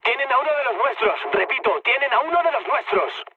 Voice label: aircraft destroyed mood med; War Thunder

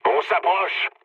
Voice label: near waypoint mood low, speech fr; War Thunder

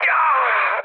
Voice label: damaged pilot, mood low, speech hun; War Thunder

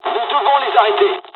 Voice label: dialog, dialogs french; War Thunder

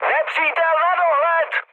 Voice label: enemy detected, speech cze, mood high; War Thunder

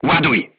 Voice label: voice message load, crew tanks polish

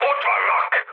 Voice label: enemy detected, speech hun; War Thunder

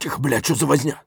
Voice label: silence; Escape from Tarkov; scav5

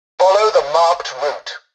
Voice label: tutorial dialogue, english; War Thunder

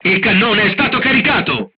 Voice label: charged, crew tanks italian; War Thunder

